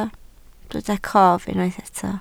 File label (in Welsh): "cof", Welsh